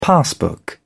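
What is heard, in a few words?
"passbook", British English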